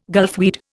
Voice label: "gulfweed", Indian